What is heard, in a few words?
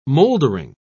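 "mouldering", British English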